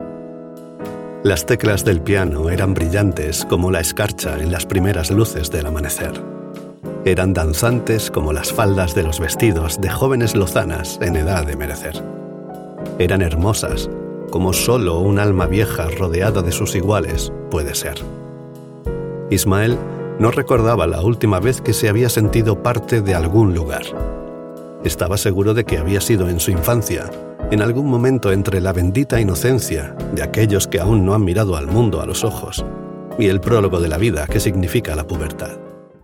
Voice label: spanish narration